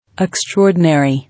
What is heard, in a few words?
"Extraordinary", English